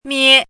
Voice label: "mie1", pinyin

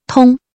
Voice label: "tong1", pinyin

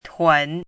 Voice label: "tun3", pinyin

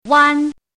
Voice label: "wan1", pinyin

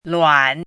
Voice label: "luan3", pinyin

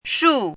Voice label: "shu4", pinyin

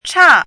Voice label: "cha4", pinyin

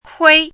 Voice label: "kui1", pinyin

